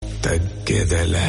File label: dialogue telugu; pushpa